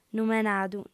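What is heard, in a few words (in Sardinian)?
"numenadu", female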